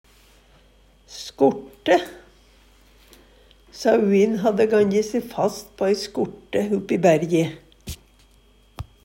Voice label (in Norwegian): "skorte", Numedalsmål; Substantiv hokjønn